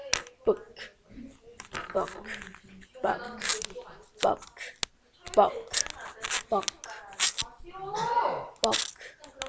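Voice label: "book", spoken word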